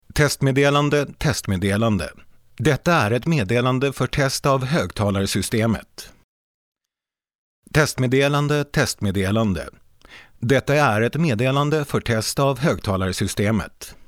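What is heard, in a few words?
swedish male narration; voice demos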